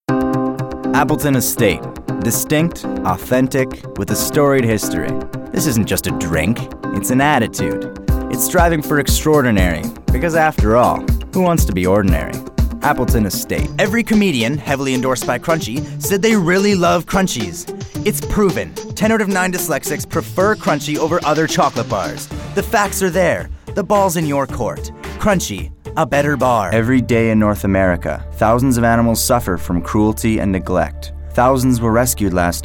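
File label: commercial voiceovers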